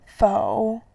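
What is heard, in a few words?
"fou", Mandarin Chinese, third tone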